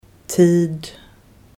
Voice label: "time" standard